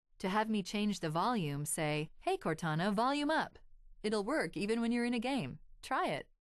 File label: volume up, en-us; Windows; HoloShell